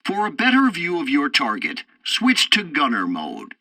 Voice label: tutorial tank arcade long range switch view, english; War Thunder